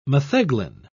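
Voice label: "metheglin", English, British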